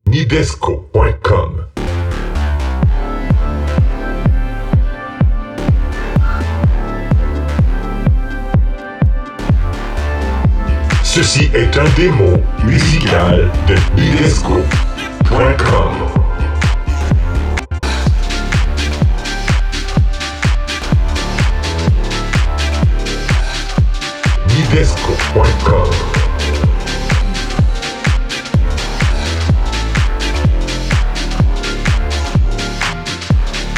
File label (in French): annonce radio